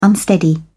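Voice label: "unsteady", British English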